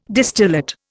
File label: "distillate", Indian